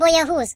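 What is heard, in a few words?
"Coward", British English; hedgewars; Default uk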